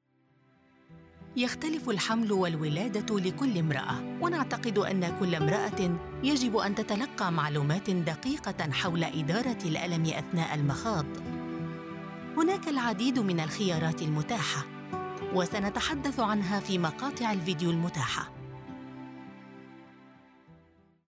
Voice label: arabic explainer videos, arabic voice actors

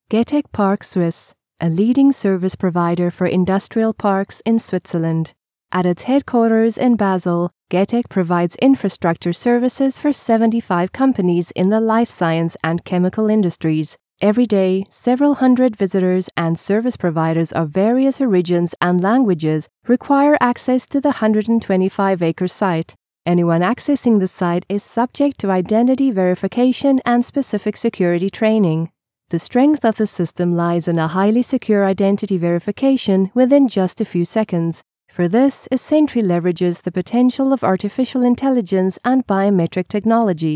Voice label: english international documentaries; Synchronsprecher aus Südafrika

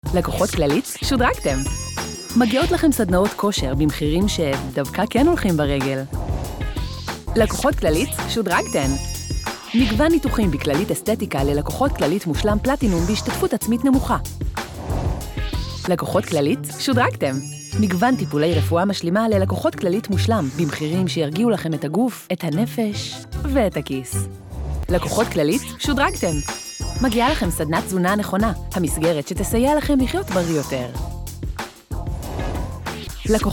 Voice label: hebrew promos

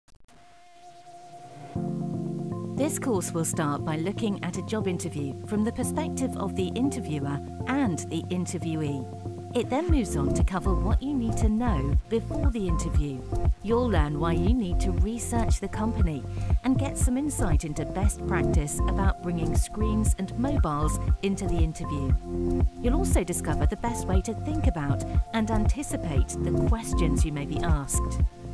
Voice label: english british e-learning

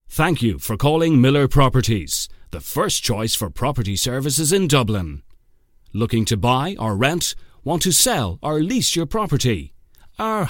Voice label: english irish real estate; Irish/English; Dublin; locutor profesional irlandés/inglés

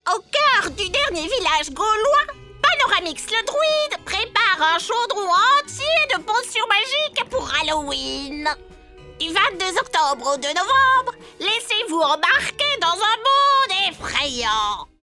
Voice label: french animation

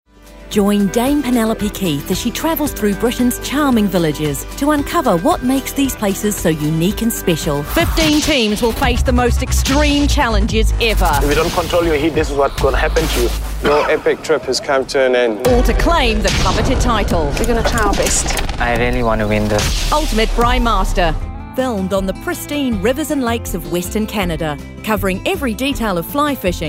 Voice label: english new zealand promos

